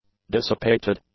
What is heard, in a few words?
"dissipated", English; adjectives